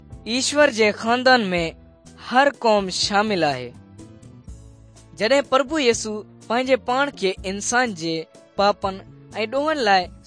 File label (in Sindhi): good news sindhi dukslinu, drama